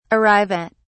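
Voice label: "arrive at", British English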